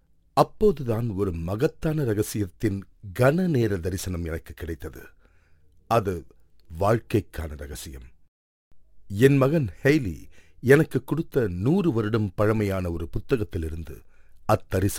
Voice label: Tamil audiobook

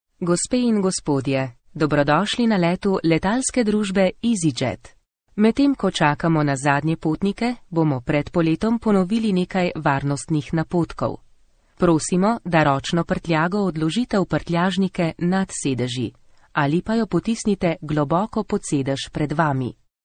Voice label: Slovenian

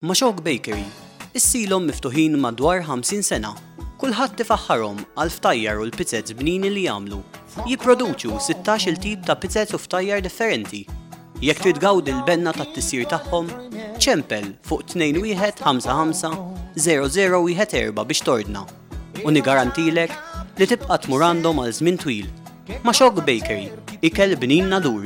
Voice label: Maltese

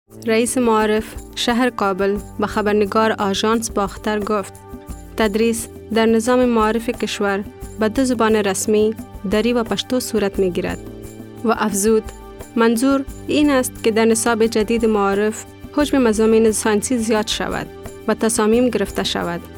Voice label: Dari

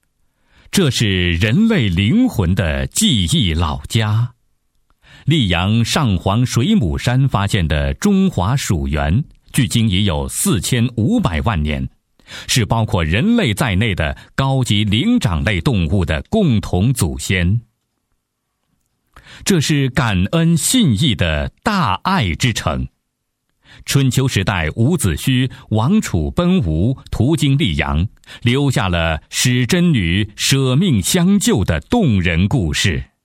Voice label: male

